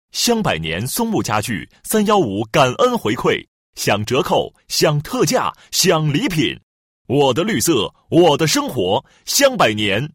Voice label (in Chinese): 男 广告 香柏年松木家具, 国语男声配音